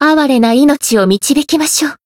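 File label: encounter, Japanese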